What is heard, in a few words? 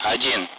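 Speech Sound Russian Common Allied Flight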